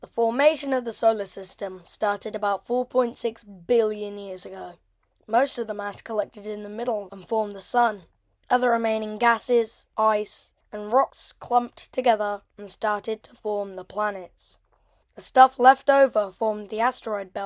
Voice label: narration sample